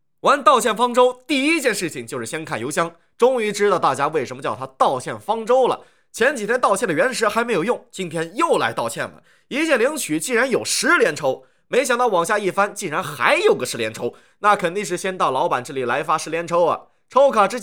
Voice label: 信息流试音

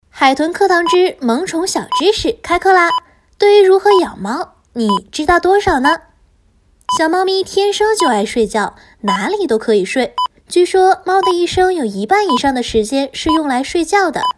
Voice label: 萌宠知识 俏皮可爱

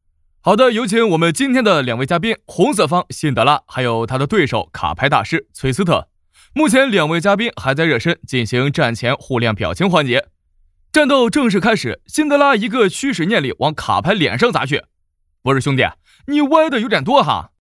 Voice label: 比赛解说; 菜鸡互啄

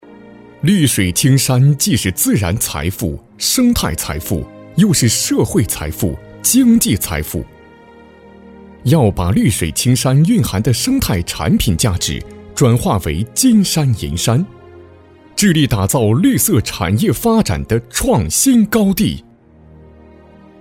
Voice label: 男 政府专题 绿水青山 稳重沉稳

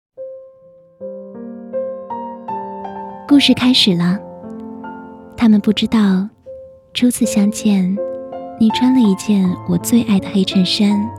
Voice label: 旁白婚礼沙画

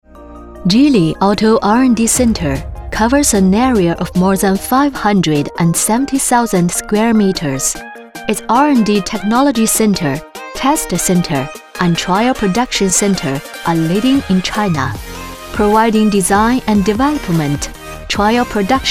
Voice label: 英文 汽车介绍; 吉利

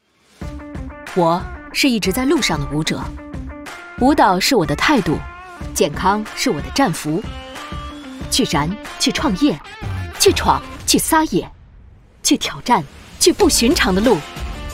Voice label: 广告潮酷; 南国生椰拿铁

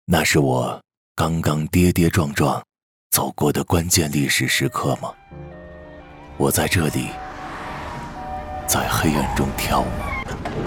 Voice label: 旁白; 我们都是历史的见证者

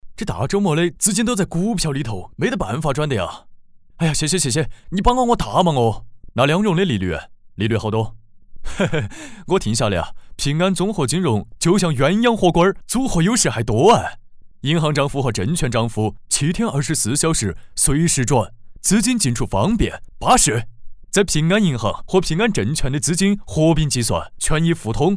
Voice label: 四川话; 金融年轻王总

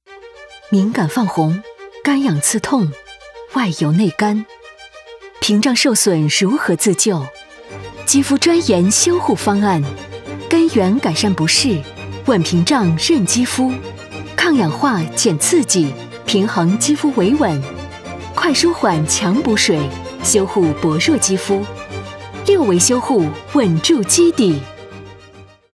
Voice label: 广告化妆品; 佰草集

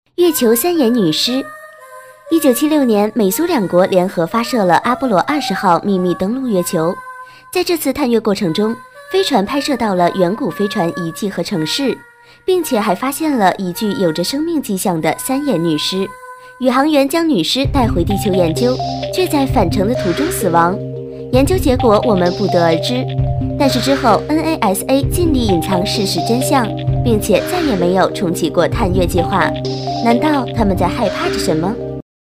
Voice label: 解说; 大千世界

